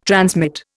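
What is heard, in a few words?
"transmit", Indian English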